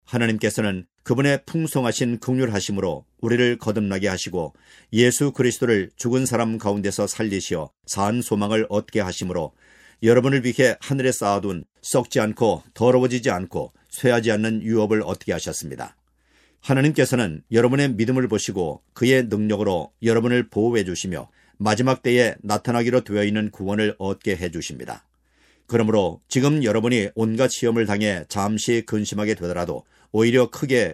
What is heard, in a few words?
Korean 1 minute